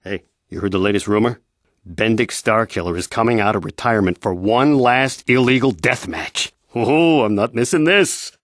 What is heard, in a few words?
male